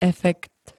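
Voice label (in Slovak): "efekt", Slovak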